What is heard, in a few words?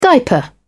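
"diaper", British English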